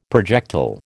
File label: "projectile", American